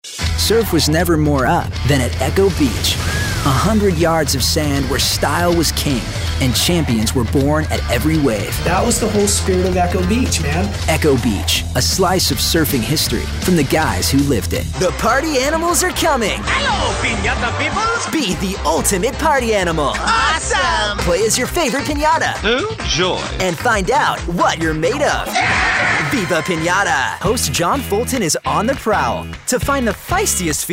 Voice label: TV Promo

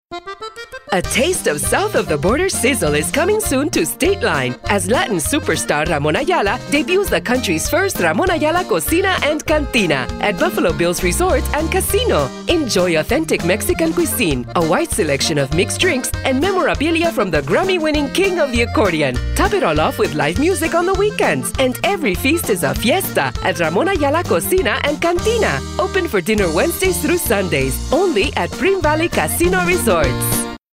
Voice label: Accent Hispanic

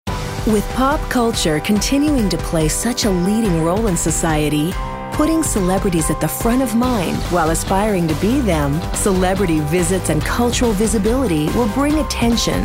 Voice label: Corporate

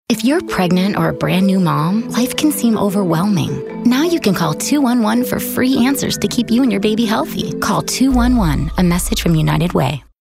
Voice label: Warm Caring

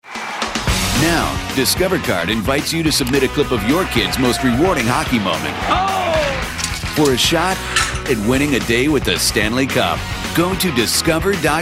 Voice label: High Energy

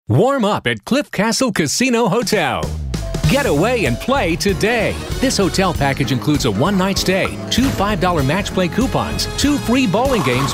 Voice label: High Energy

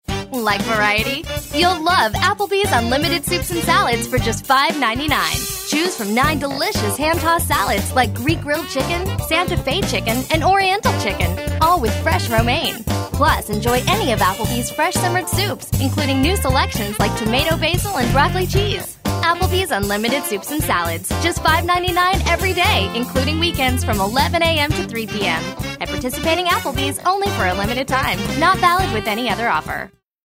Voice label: Upbeat Perky

